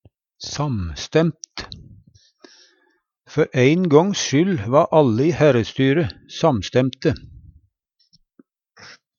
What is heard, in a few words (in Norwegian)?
samstemt, Numedalsmål; Adjektiv